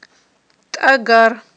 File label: "тIагар", Aghul